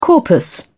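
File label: "corpus", British English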